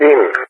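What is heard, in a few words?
"in", English speech; Allied Flight; DCS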